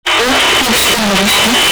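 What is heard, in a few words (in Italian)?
"oggi", italiano; oggi scenderà ccà…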